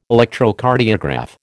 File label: "Electrocardiograph", American